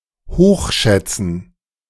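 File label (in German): "hochschätzen", German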